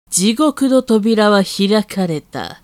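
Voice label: female; 地獄の扉は開かれた; 低音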